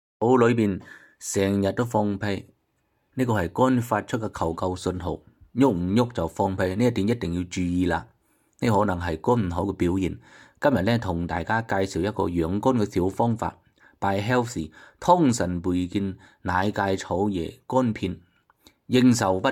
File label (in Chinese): 粤语